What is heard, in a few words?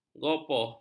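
"gopoh", Malay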